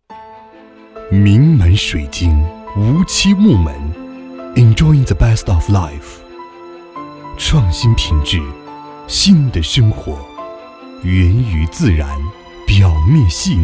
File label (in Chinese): 广告样音